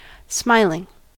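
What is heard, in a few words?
"smiling", American English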